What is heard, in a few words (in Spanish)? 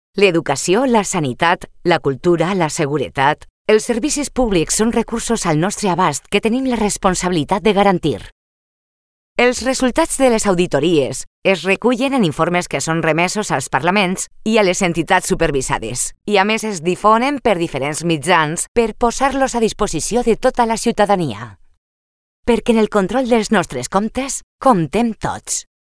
Femenino